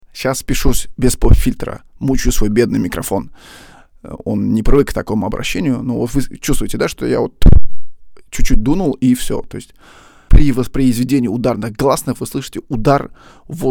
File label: mic without popfilter